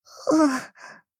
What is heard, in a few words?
shy